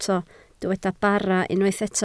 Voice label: "bara", Welsh